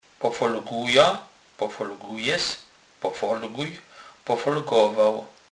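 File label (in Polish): "pofolgowac", Kurpie dialect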